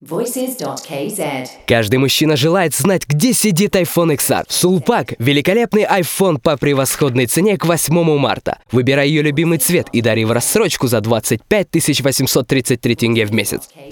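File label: Russian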